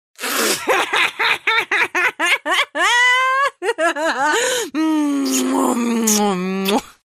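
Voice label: female angry with elements of romance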